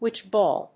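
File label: "Witch ball", English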